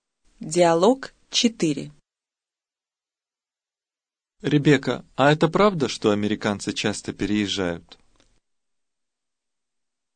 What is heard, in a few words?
dialog, russian